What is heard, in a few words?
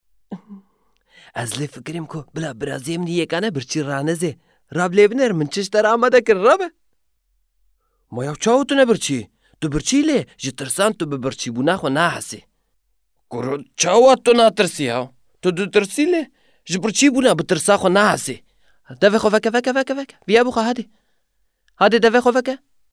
kurdish voiceover, Kurdish male voice over